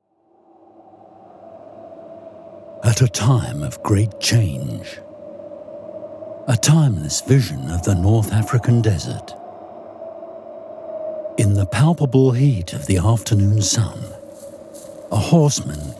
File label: english british narration; um dublador inglês